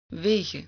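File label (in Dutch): "wegen", Dutch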